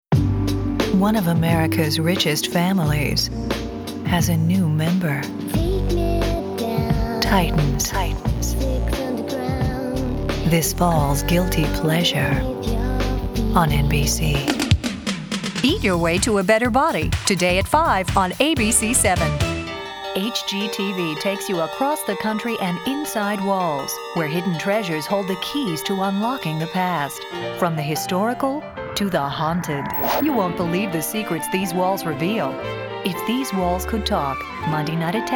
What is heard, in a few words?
promo, female voice